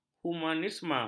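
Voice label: "humanisme", Malay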